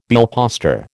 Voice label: "billposter", American

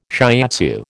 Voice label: "shiatsu", American

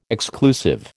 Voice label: "exclusive", American

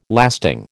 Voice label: "lasting", American

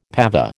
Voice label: "pav", American

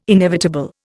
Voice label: "inevitable", Indian

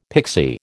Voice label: "pixie", American

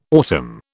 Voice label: "autumn", British

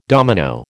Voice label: "domino", American

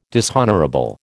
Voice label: "dishonourable", American

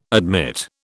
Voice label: "admit", British

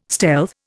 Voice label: "stealth", Indian

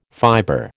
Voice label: "fiber", American

